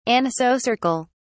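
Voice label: "anisocercal", British English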